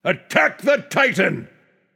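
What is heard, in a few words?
vox attack male; smite; zeus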